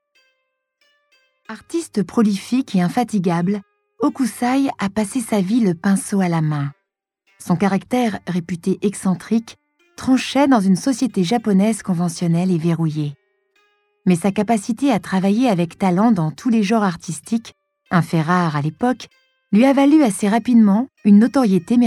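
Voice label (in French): Audio guide posee calme, comédienne voix off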